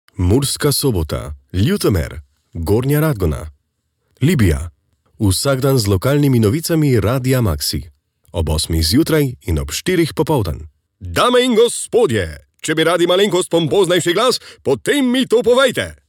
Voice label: SLOVENIAN